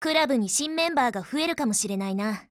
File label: OPERATION BEGIN JP